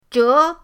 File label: "zhe2", pinyin